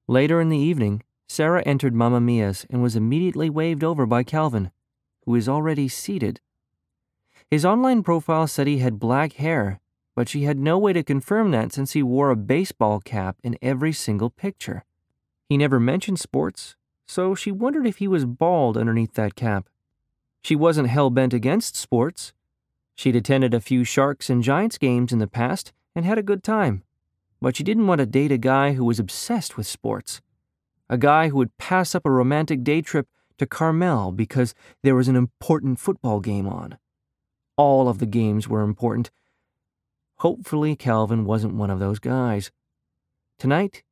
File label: Comedic Romance; Kissing Frogs